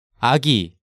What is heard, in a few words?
"Agi", Korean